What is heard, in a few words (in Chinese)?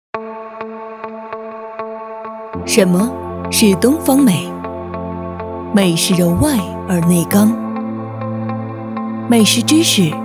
女 广告 洋气高冷